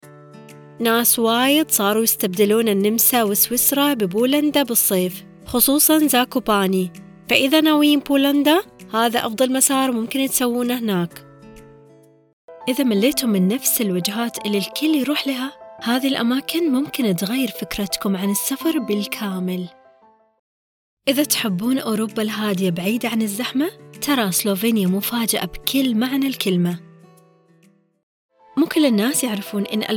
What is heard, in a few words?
voiceover